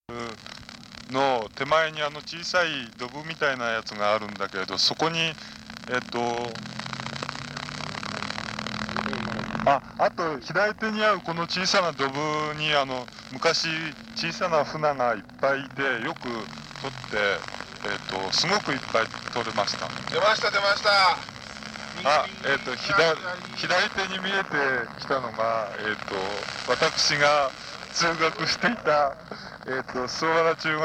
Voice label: car-to-car wireless talking